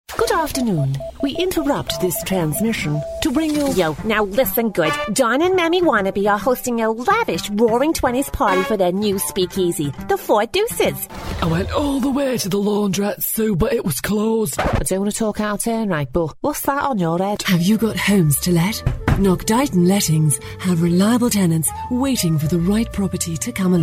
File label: character montage, Engaging and Flirty Female Voice